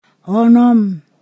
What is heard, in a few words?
"six", Ponosakan